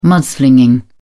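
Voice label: "mudslinging", British English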